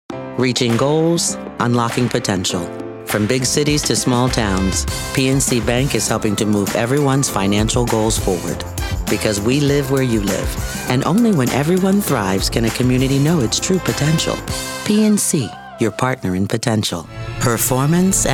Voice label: Commercial